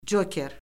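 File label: "coker", Azerbaijani; Orfoqrafiya Lüğəti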